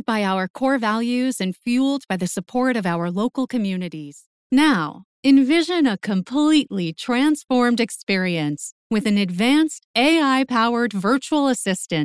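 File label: e-learning corporate voice over, female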